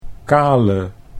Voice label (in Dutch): "kale", Dutch